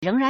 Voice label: "reng2ran2", Chinese

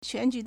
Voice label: "quan2 ju2", Chinese

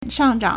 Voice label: "shang4zhang3", Chinese

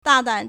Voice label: "da4 dan3", Chinese

